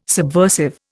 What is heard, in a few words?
"subversive", Indian